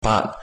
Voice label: hakka